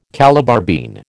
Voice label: "Calabar bean", American

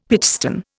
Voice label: "pitchstone", Indian; Dictionary

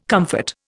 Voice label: "comfort", Indian

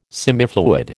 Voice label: "semifluid", American

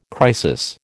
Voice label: "crisis", American

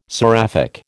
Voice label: "seraphic", American

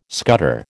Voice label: "scutter", American

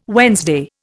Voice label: "Wednesday", Indian

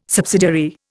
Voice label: "subsidiary", Indian

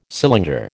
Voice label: "cylinder", American

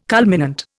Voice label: "culminant", Indian

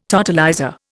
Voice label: "totalizer", Indian

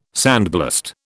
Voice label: "sandblast", British

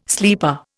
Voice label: "sleeper", Indian

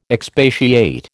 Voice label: "expatiate", American

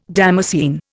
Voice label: "damascene", Indian

